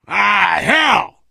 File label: swear, player survivor voice biker; source engine; left 4 dead 2